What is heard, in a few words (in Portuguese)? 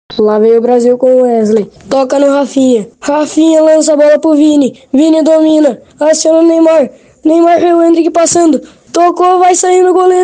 OFF NARRADOR; ais fm planalto norte e alto vale; narrador por um dia